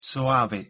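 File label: pronunciation italian soave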